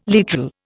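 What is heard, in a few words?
"literal", Indian English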